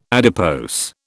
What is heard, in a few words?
"adipose", British